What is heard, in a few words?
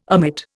"omit", Indian